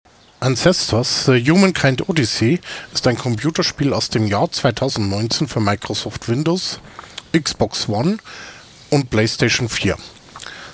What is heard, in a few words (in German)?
test, sprachaufnahme klingt dumpf